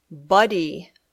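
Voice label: "buddy", English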